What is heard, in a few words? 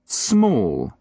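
"small", British English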